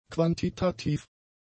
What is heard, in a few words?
"quantitativ", German; adjectives